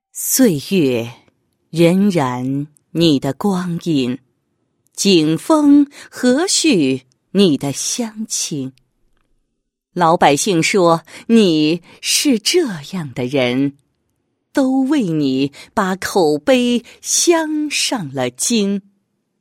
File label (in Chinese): 女 颁奖 深情诗歌, 配音; 兴安颁奖词